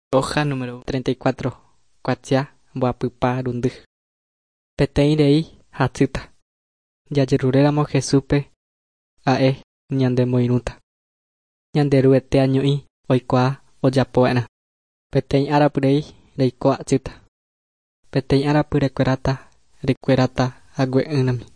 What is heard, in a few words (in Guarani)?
guarani mbya; good news and words of life; bible